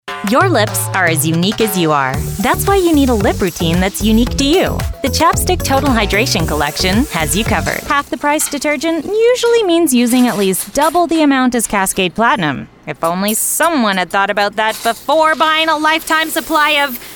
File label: Commercial